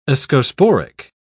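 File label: "ascosporic", British English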